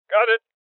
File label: Voices Confirmation Male Old; Rebel Cops